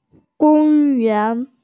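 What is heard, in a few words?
"gong yuan", Mandarin Chinese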